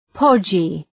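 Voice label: "podgy", English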